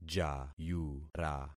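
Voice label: "jayura", concatenated syllables; Affixing experiment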